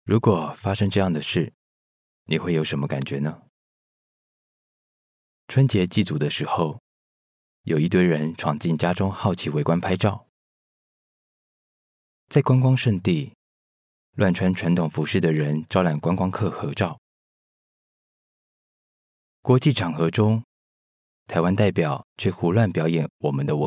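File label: Taiwan, male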